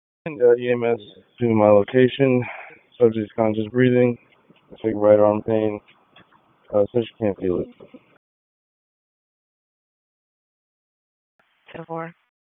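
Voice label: Raleigh Police Southeast District Dispatch, p25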